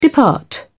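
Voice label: "depart", British English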